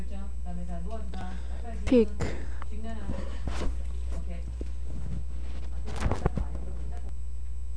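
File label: "pick", spoken word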